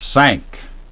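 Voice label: "sank", English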